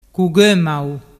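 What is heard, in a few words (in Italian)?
"cugomau", dialect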